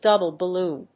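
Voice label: "DOUBLE BALLOON", English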